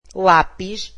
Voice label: "pencil", Portuguese